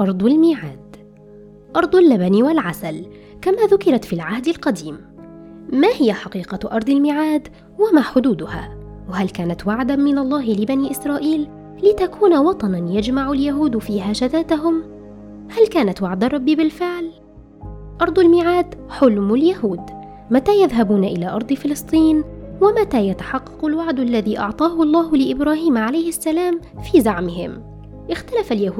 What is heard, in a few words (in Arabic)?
بودكاست; فلسطين